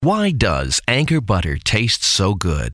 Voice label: advert; anchor butter